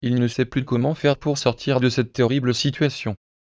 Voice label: nphones synth